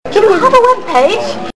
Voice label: web page british